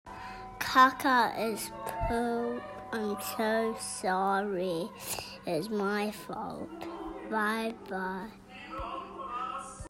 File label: voice message